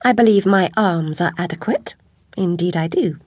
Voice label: cheer, voice commands; svencoop; Alice